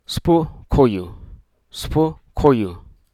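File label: Hruso Aka; drawn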